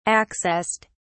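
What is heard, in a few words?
"accessed", British English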